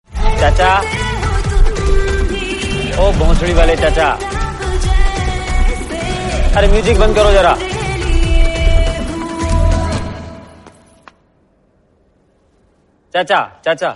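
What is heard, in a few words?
Dialogue Ringtone; Mirzapur; Chacha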